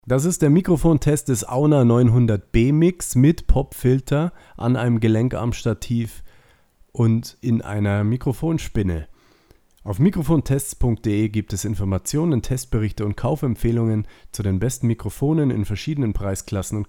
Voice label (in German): USB Mikrofon Test Popfilter; Auna Mic